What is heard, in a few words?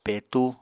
"petú", apatani